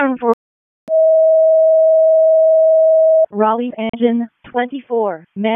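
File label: Raleigh Fire Department ALERT, p25; Wake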